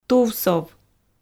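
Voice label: "тувсов", Komi, female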